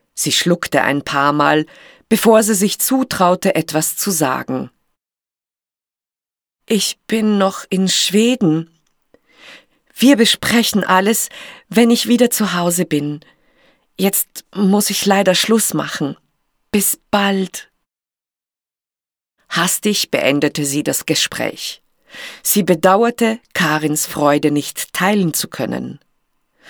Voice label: german austrian audiobooks